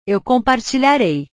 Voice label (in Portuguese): "eu compartilharei", portugues; portugues/verbos